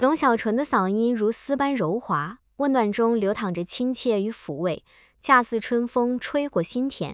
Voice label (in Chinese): 知性积极女; 中、英; longxiaochun v2